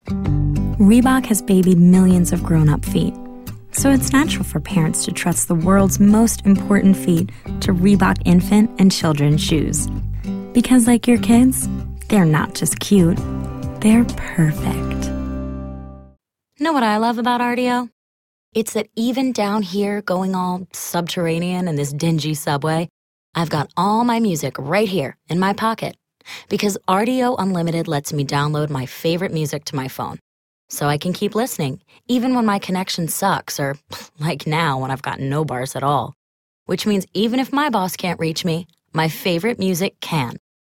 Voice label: USA